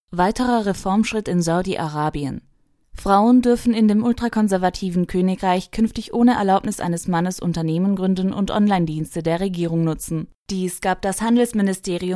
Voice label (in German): News Deutsch